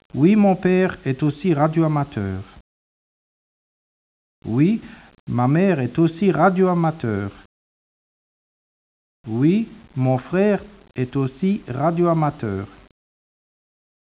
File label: speech, French